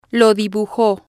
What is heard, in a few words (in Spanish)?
"lo Dibujo", spanish